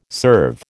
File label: "serve", American